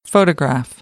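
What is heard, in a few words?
"photograph", American English; AmericanBritish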